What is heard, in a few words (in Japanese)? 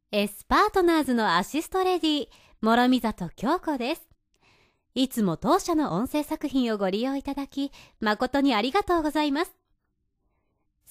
ナビメッセージ